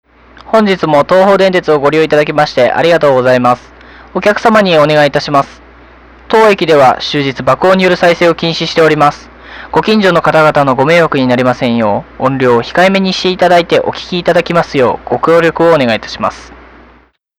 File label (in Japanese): アナウンス; サンクリ42